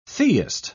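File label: "theist", British English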